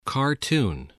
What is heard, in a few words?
"cartoon", spelling